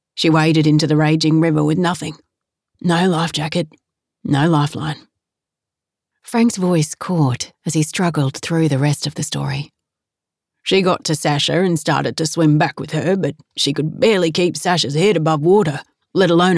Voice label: Audiobook Sample; Audiobook Narration; Slow Road to Love